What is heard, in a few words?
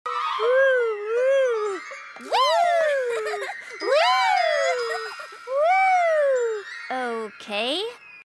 whooooooo; Applejack; pinkie